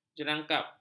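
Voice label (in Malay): "jerangkap", Malay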